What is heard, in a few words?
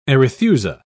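"arethusa", English, British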